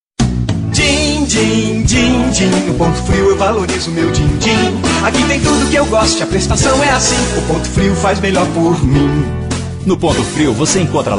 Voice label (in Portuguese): Spot, Locução; Spot 30 segundos; Locução para Spot de 30 seg.; em parceria com a produtora Banda Sonora, sob a supervisão de Edu santos